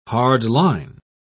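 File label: "hardline", British English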